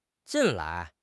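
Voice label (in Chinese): "近来", Mandarin Chinese; HSK6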